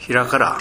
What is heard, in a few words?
"herakara", minamiyamagata